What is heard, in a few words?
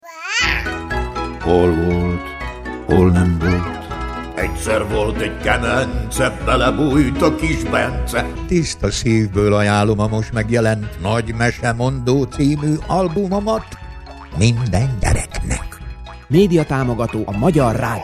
radiospot; zeneker